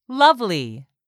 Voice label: "lovely", English; 3000 words

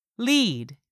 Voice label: "lead", English; 3000 words

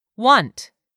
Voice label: "want", English; 3000 words

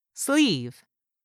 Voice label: "SLEEVE", english; 3000 words

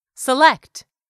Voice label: "select", english; 3000 words

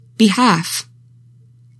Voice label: "BEHALF", english; 3000 words

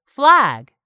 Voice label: "flag", English; 3000 words

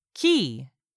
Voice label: "key", English; 3000 words

